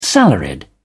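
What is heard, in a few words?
"salaried", British English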